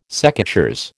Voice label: "secateurs", American

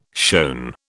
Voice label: "shown", British

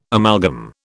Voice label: "amalgam", British

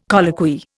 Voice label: "colloquy", Indian

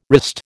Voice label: "wrist", American

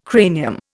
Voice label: "cranium", Indian

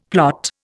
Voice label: "plot", Indian; Dictionary

